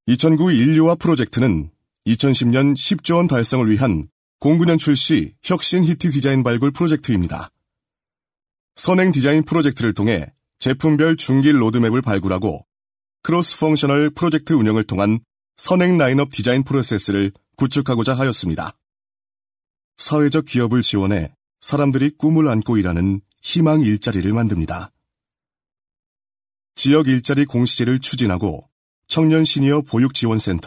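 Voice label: Korean male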